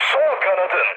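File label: left wing, mood low, Turkish; speech; War Thunder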